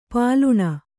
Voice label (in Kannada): "ಪಾಲುಣ", kannada